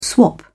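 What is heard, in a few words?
"swap", British English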